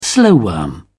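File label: "slowworm", British English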